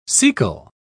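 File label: "caecal", British English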